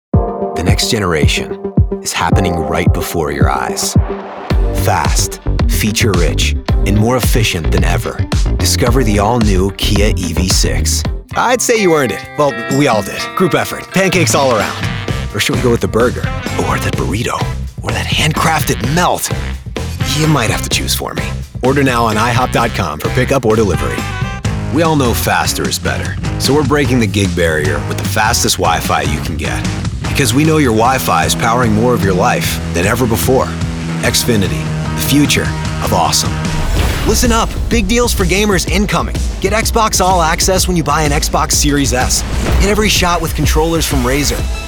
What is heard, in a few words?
Commercial